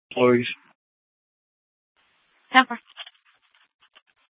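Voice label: Police Department North Dispatch, scanner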